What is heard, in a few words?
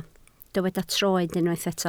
"troed", Welsh